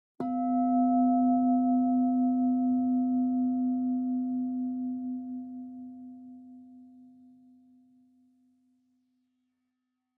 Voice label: Meditation Introduction Session; 7mind

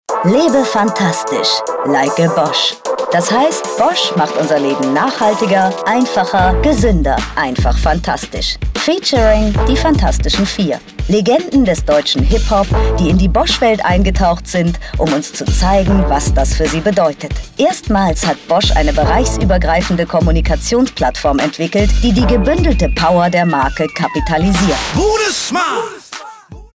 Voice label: Casefilm, Commercial, German; Casefilm: Bosch

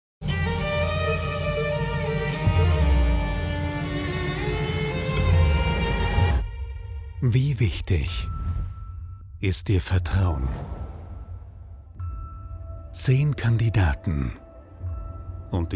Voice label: TV Teaser; The Mole

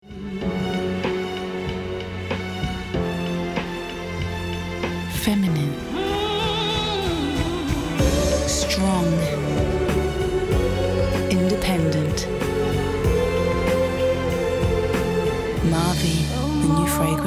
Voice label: commercial perfume advert

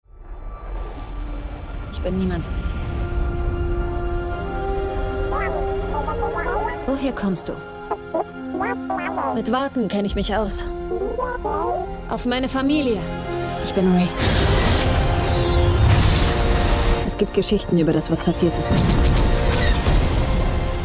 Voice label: Trailer

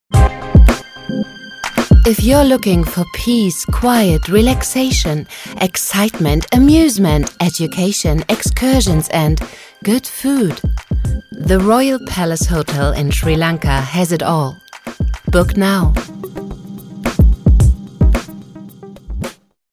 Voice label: Englisch Sri Lanka

